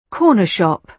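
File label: "corner shop", British English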